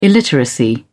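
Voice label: "illiteracy", British English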